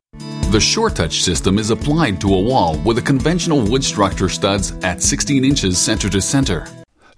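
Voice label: English Canadian, M, Elearning, accent of canada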